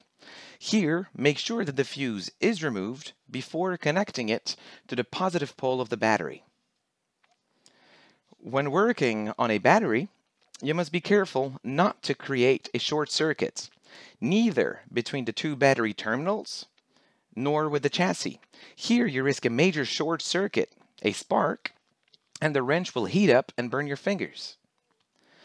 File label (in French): Voix anglaise Home studio; Traduction audiovisuelle : doublage, voix off et sous-titrage